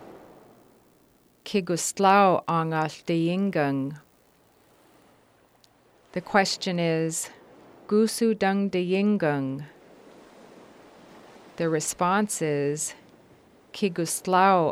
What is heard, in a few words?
Haida Keys